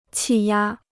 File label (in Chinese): "气压", Chinese